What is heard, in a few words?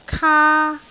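"kaa1", Cantonese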